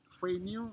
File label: "feinyu" tree, futuna aniwa